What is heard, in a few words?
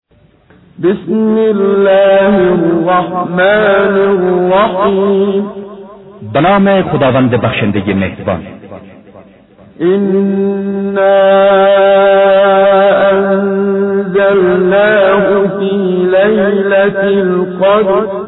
Surah Farsi; Menshay Translation